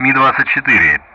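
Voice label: Speech Sound Russian; Common Player Mi-24; DCS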